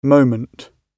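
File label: "moment", British English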